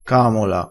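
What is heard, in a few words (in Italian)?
"camola", dialettale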